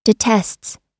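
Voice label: "detests", American English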